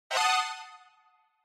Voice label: Parody Accent High